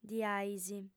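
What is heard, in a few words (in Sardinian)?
"diais", female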